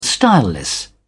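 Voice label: "styleless", English, uk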